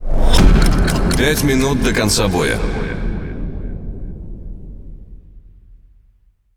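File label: Russian Speech Timer; World Of Warships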